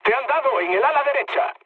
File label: right wing, mood low, speech; War Thunder